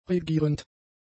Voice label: "regierend", German; adjectives